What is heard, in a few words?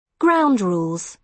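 "ground rules", British English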